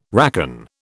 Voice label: "racon", British

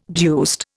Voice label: "deuced", Indian

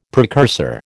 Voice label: "precursor", American

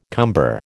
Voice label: "cumber", American

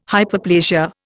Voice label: "Hyperplasia", Indian

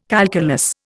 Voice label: "calculus", Indian

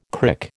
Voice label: "crick", American

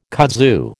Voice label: "kudzu", American

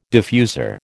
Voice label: "diffuser", American

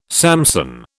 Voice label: "Samson", British

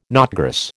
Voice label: "knotgrass", American

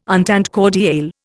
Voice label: "entente cordiale", Indian

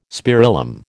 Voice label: "spirillum", American

